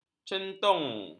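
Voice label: "centong", Malay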